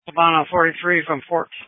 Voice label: Sheriff Dispatch